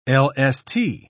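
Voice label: "lst", British English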